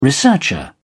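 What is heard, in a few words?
"researcher", British English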